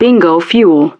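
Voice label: "Bingo Fuel", English; DCS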